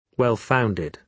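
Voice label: "well-founded", British English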